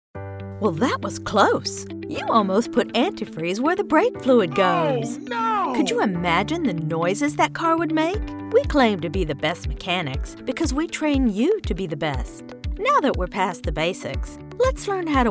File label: ELEARNING